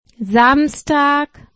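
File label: "Samstag", German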